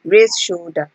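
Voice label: "raise shoulder", Nigerian Pidgin, female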